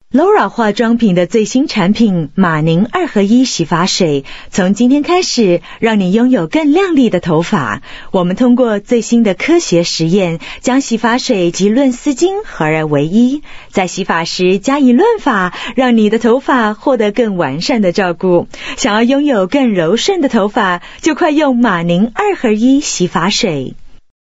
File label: Mandarin Taiwan, Female